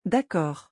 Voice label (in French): "d'accord" French; vocabulary; essential french